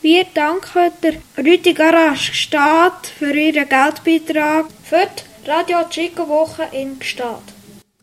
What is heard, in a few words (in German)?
Jingle - Garage; Rütti Garage